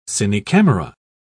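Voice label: "cine-camera", British English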